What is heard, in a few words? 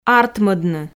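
"артмӧдны", Komi, female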